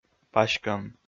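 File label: "паськӧм", Komi, male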